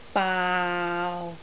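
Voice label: "baau6", Cantonese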